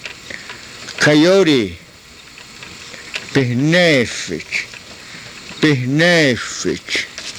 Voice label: "pihneefich", karuk

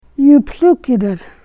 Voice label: "yupthukirar", karuk

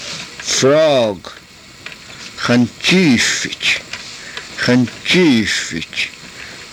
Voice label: "xanchiifich", karuk

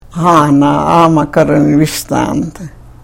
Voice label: karuk

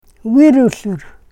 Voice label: "virusur", karuk

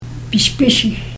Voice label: "pishpishih", karuk

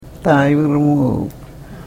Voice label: karuk

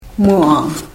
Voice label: "muaan", karuk

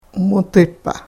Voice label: "mutipah", karuk

